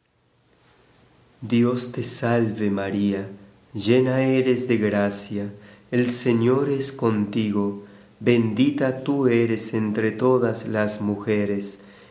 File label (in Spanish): espanol normal; ave maria